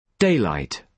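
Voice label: "daylight", British English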